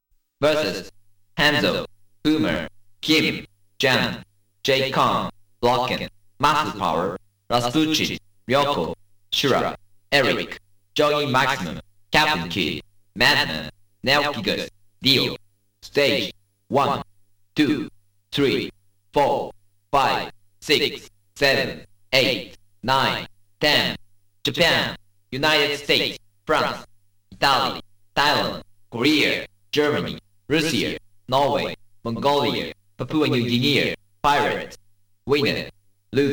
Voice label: Referee VOICE; World Heroes 2